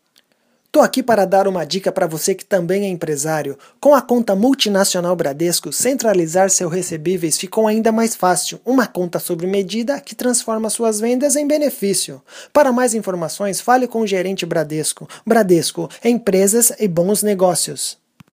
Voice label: male brazilian portuguese; bradesco